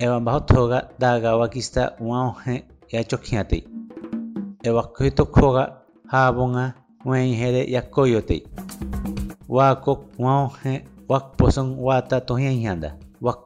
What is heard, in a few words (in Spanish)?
harakbut uso de mascarilla 1 voz, spot de radio; medidas de prevencion